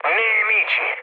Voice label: enemy detected, mood med, speech it; War Thunder

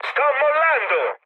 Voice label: bailout, mood med, speech it; War Thunder

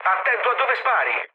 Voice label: player friendly fire, mood low, speech it

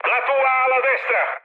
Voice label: right wing, mood med, speech it; War Thunder

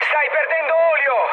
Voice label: damaged oil, mood high, speech it; War Thunder

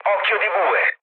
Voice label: bomb success, mood low, speech it; War Thunder

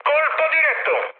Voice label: bomb success, mood high, speech it; War Thunder

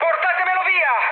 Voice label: aircraft damaged, mood high, speech it; War Thunder

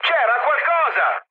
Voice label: trick, mood high, speech it; War Thunder

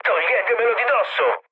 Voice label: aircraft damaged, mood low, speech it

